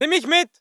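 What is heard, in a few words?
voice german infantry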